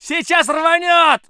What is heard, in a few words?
voice sov infantry